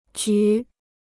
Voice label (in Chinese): "局", Chinese